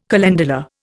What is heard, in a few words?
"calendula", Indian